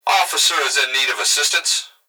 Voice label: Emergency Human Male Voice Police Dispatch Officer Is In Need Of Assistance; emergency effects